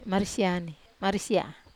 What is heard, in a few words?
"marisia", Wamesa